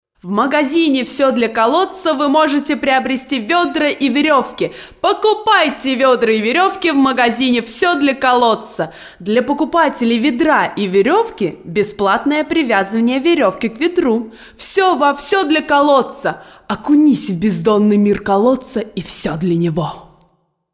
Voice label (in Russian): Diktori studii Reclama; Bashnya